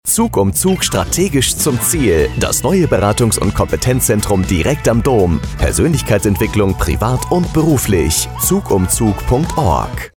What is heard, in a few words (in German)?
Funkspot